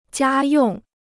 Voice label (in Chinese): "家用", Chinese